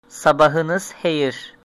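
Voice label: good morning, Azerbaijani; Sabahınız xeyir; Good morning (Morning greeting)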